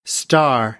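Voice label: "star", American English; AmericanBritish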